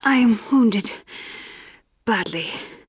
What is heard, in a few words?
LOW; BASTILA; XBOX